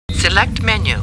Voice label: Computer Voice; Star Trek